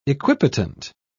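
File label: "equipotent", British English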